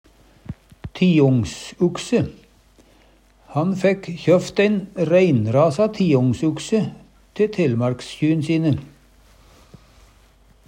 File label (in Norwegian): tiongsukse, Numedalsmål; Han fekk kjøft ein reinrasa tiongsukse te Telemarkskjy'n sine.